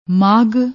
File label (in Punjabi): "maghh", Punjabi; ਮਾਘ; ماگھ; noun, masculine